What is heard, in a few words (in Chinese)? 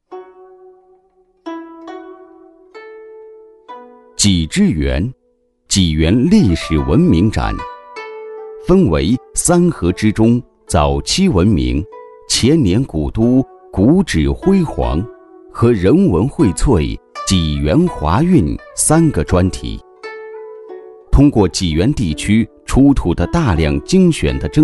男 纪录片; 济之源 济源历史文明展